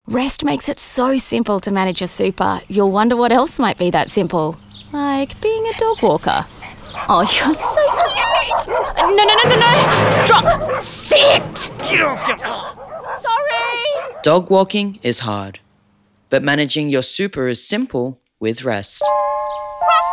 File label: funny advertisement; SUPER DOG-WALKER; BROADCAST RADIO